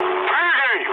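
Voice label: Russian bailout mood med, speech; War Thunder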